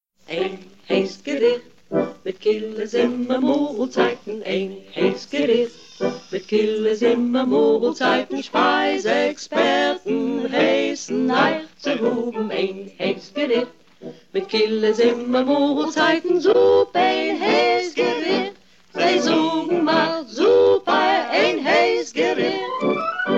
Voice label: Soup Commercial